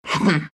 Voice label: anger ru